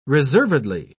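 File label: "reservedly", British English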